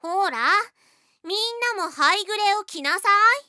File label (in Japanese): ほら、みんなもハイグレを着なさい！, 小悪魔; ハイグレボイス集